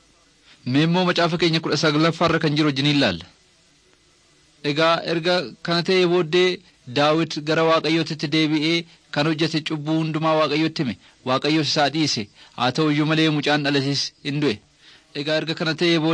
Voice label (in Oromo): oromo wellega; servants of god